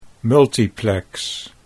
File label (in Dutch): "multiplex", Dutch